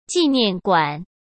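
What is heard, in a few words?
"纪念馆", Mandarin Chinese; hsk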